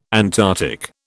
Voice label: "Antarctic", British